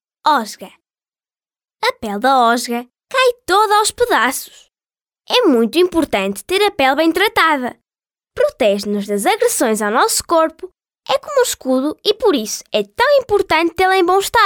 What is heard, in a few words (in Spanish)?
locutora infantil portuguesa audioguia museo; Portuguese girl voiceover. Audioguide for museum.